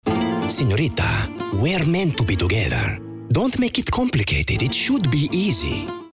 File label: Spanish Character